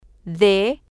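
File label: "d", Spanish, female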